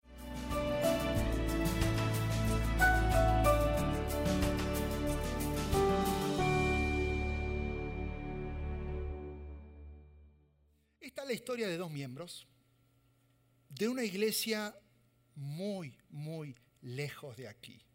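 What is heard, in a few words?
SERMON SPAN, Spanish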